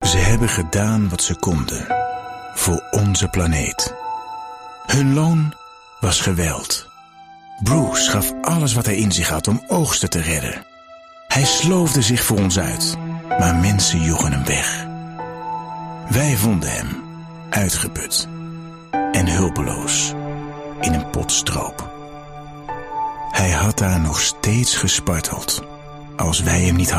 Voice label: voice over stemacteur Charity

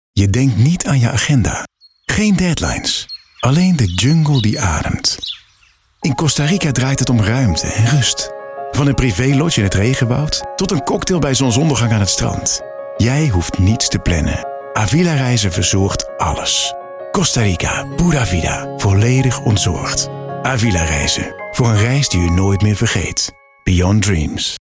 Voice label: voiceover Ontspannen

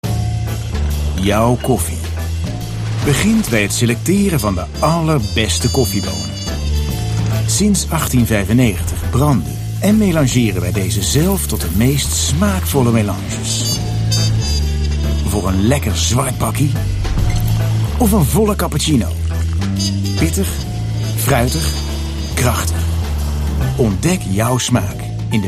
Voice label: Voice over stemacteur

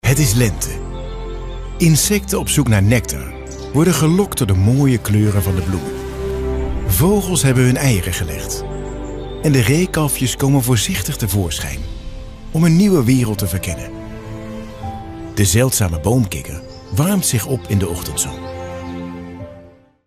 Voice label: Het is Lente, voice-over

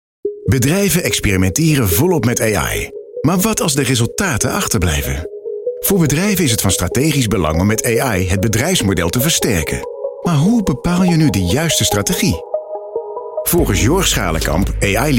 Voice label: voiceover Business

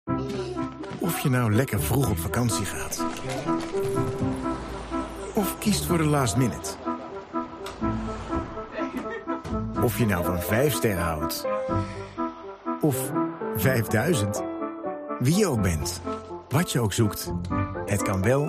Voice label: Voice over stemacteur Vakanties; beautiful, deep voice; male voice over

